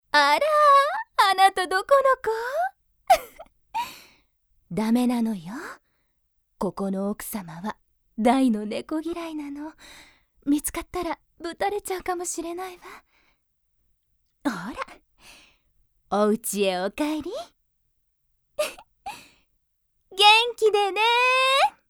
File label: woman